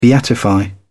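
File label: "beatify", British English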